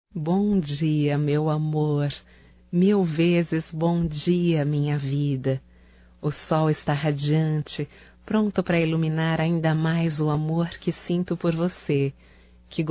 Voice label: BOM DIA ROMâNTICO, fem; Bom dia, tarde e noite; Telemensagens 2017

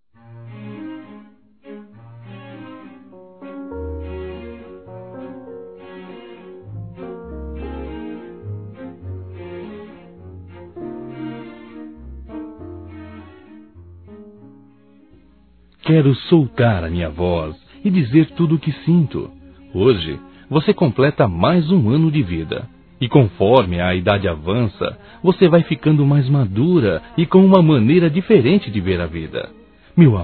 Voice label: ROMANTICO M; Aniversários; masc

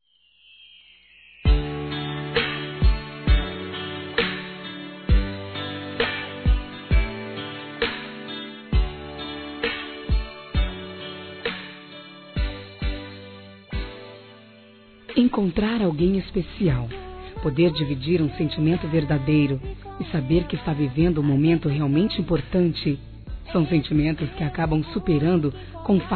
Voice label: Romantica Romantica Distante Feminino; Telemensagens; Românticas

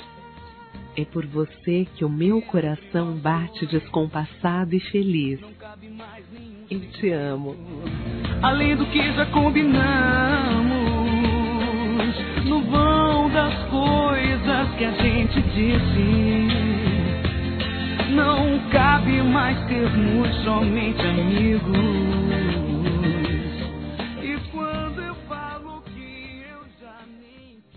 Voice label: CREIO EM TI F, Telemensagens Amor Fem

